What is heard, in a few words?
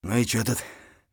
mutter; Escape from Tarkov